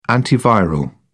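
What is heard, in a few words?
"antiviral", British English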